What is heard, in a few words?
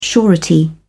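"surety", British English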